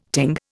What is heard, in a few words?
"ting", Indian